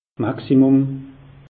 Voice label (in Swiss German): "maximum", alsace; meteo